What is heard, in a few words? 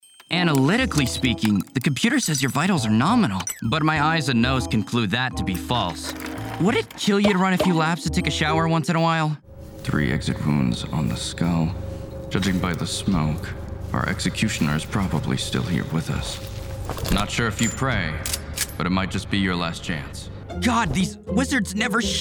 Character